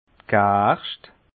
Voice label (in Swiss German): "gerscht", alsace